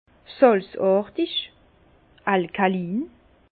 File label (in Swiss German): "salzartig", Bas Rhin; vin; alcalin